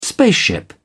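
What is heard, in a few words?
"spaceship", English, British English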